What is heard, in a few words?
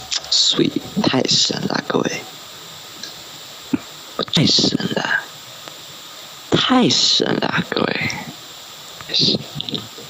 Amazing Guy 太神了各位, Chinese Meme Sound Effect